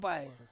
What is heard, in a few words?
"pai", the, Ansus